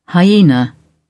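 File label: "hyaena", British English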